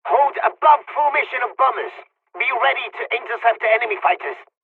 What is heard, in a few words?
midway dialog, dialogs serbian; War Thunder